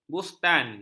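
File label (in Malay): "bustan", Malay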